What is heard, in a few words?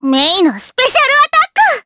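Vox Skill Japanese; kingsraid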